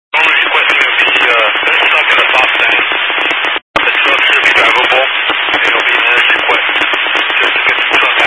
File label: Highway Patrol, scanner; DPS; Dist 06 Casa Grande